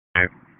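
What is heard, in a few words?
Sheriff's Office Dispatch; WSO DISP 1; wake; p25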